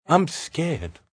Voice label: Im Scared, Male